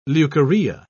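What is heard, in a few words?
"leucorrhea", British English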